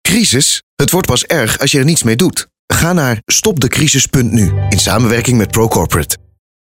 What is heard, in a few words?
Corporate Spot